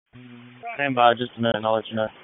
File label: FIRE OPS, p25; wake